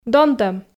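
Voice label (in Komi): "донтӧм", Komi, female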